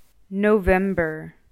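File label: "November", English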